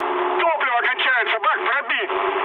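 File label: tank damaged, mood high, Russian; War Thunder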